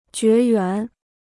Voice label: "绝缘", Chinese

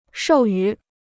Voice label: "授予", Chinese

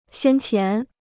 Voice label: "先前", Chinese

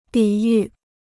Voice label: "抵御", Chinese

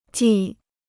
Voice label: "继", Chinese

